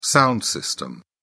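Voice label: "sound system", British English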